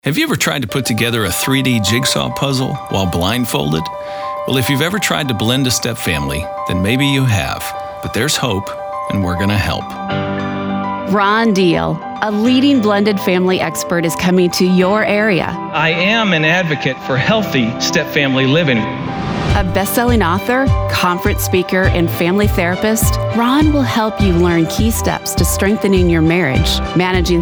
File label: Radio ad generic